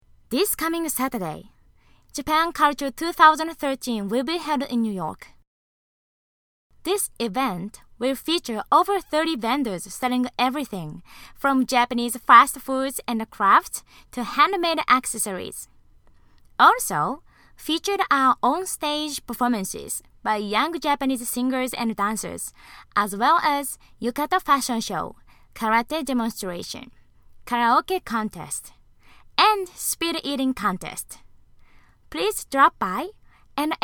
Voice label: English